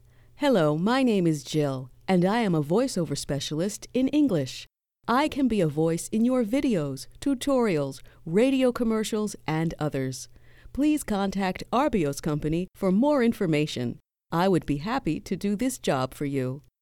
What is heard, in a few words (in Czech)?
americký dabing